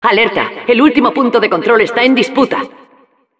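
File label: control point warning, Spanish; ¡Alerta! El último Punto de Control está en disputa.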